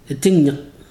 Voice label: hiccup, ibibio

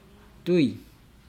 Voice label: "sew", Nukuoro

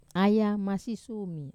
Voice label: "aya masisumi", Wamesa

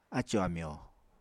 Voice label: "acò-amyò", Apatani

